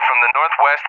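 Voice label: "from the northwest", English, USA; DCS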